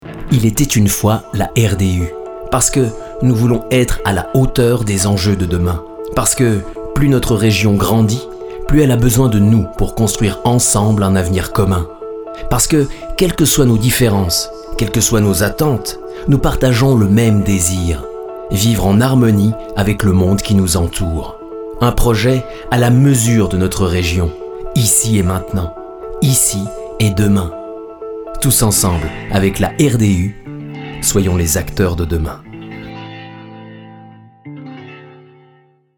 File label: french switzerland podcasts; Swiss French; voice actor